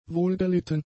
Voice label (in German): "wohlgelitten", German; adjectives